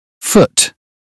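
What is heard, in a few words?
"foot", British phonetics; 英语音标发音（剑桥版）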